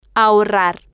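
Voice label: "ahorrar", Spanish, female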